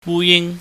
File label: "wu ying", Cantonese